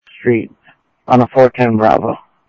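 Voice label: Sheriff West County, scanner audio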